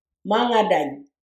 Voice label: pen pencil mangadany